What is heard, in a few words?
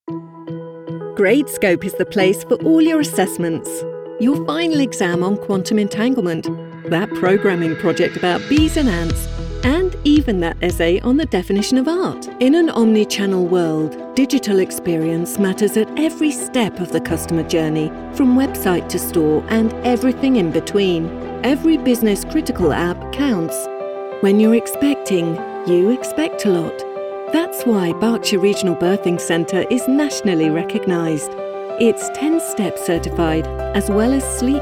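Explainer, British English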